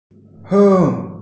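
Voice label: "heng2", Mandarin Chinese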